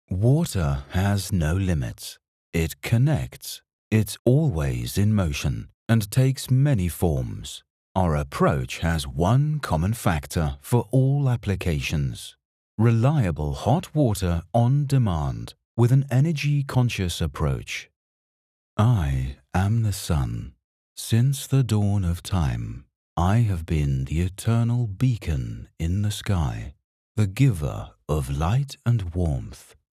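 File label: English, British, male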